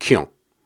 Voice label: Soft Syllables and Imaginary Words, Viking Vocal

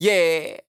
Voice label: "Yeah", Dry Chant, Vocal; Cymatics; Euphoria Vocal Sample Pack; Chants

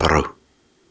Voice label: Soft Syllables and Imaginary Words, Viking Vocal

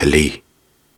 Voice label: Soft Syllables and Imaginary Words, Viking Vocal

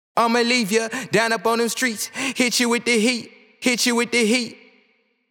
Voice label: Phrase Wet Hit You With The Heat 95 BPM, Vocal; Vocal Phrases; Cymatics; Euphoria Vocal Sample Pack

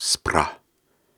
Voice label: Soft Syllables and Imaginary Words, Viking Vocal

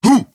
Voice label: "Who", G House Vocal Shots; Vocal Phrases

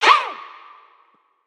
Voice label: Stacked Hey Vox; trap; Vocals; Cymatics; Trap Starter Pack

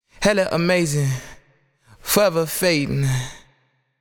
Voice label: Phrase Wet - Forever - 128 BPM, Vocal; Vocal Phrases; Cymatics; Euphoria Vocal Sample Pack

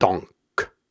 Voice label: Syllables and Imaginary Words, Hard, Viking Vocal; Viking Vocal Builder

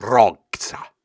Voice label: Viking Vocal Syllables and Imaginary Words, Hard

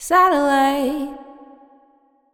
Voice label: "Satellite", Vocals, Wet; GhostHack

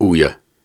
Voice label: Syllables and Imaginary Words, Viking Vocal; Viking Vocal Builder; Hard

